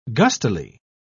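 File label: "gustily", British English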